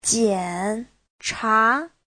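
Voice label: "jiǎnchá", Mandarin Chinese; 检查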